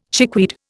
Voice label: "chickweed", Indian